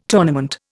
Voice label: "tournament", Indian